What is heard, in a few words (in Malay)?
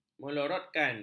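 "melorotkan", Malay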